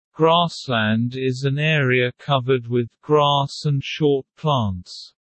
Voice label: low; Animal friends